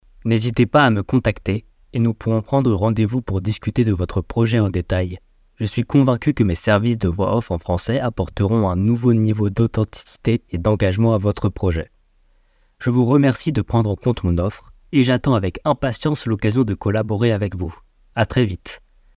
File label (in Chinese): 法语男声